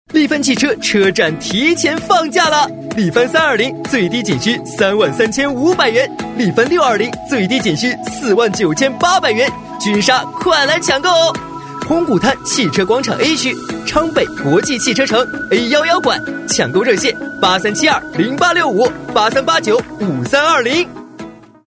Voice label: 男 促销广告 汽车广告; 年轻多变 高端大气; 男WX-促销广告-汽车广告

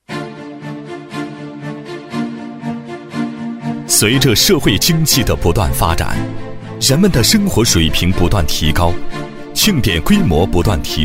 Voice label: 男 庆典 大气激情; 湖北; 厚重磁性 大气浑厚

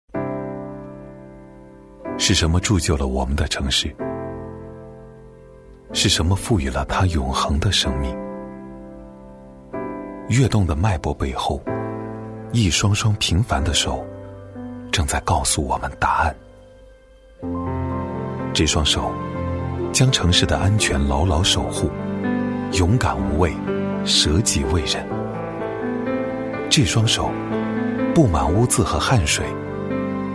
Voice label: 男 【走心品质男声】, 磁性沉稳 质感磁性; 致敬劳动者; 男139-【走心品质男声】致敬劳动者

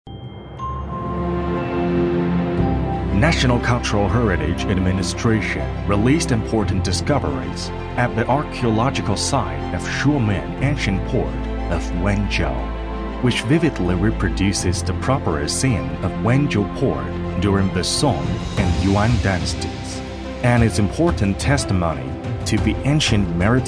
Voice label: 男 英文宣传片 大气宣传; 温州; 男386-中英双语

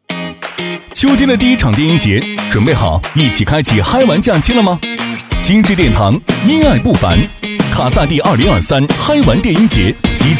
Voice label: 男 广告配音 年轻时时尚; 电音节; 激情有力 轻松活泼

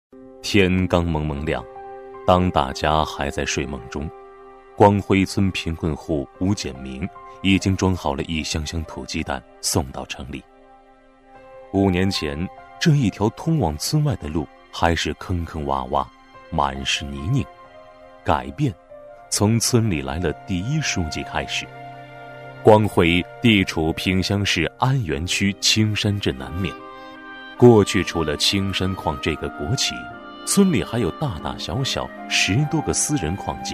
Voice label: 男 人物专题 浑厚感动; 第一书记; 抑扬顿挫

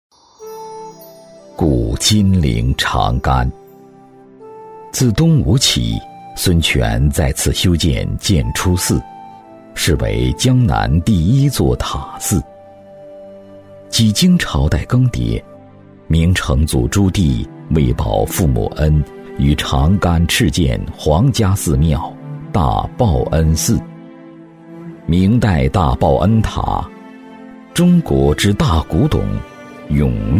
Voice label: 男 历史纪录片 醇厚讲解, 高端内涵 沉稳大气; 古金陵长干; 央视历史纪录片

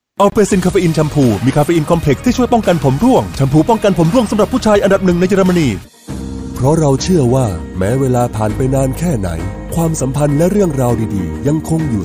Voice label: 泰语男声 广告风格

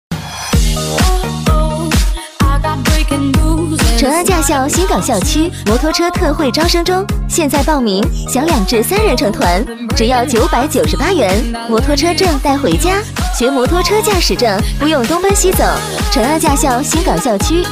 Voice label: 知性女 驾校特惠招生中; 激情力度

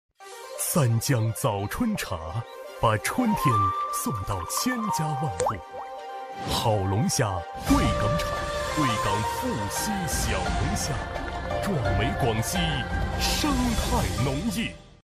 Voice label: 男 广告; 男D7-激情大气; 央视广告系列作品; 《广西农业形象片》

